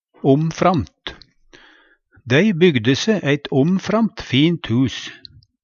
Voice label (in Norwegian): "omframt", Numedalsmål; framifrå bra, utanom det vanlege; Dei bygde se eit omframt fint hus.; Adverb